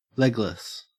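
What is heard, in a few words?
"legless", Australian English; pronunciations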